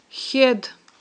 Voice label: "хьед", aghul

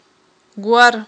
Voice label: "гвар", Aghul

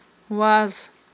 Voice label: "ваз", Aghul

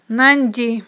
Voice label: "нанди", aghul

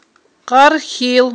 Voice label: "къархьил", Aghul

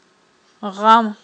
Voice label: "гъам", Aghul

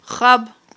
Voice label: "хаб", aghul

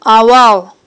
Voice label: "авал", aghul

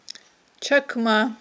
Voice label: "чакма", aghul

